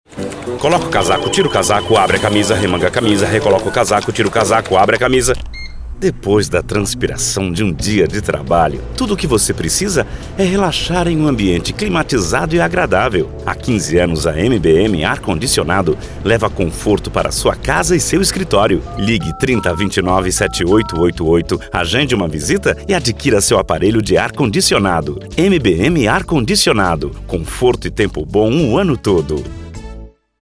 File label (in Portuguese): Spot